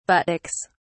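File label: "buttocks", English, British English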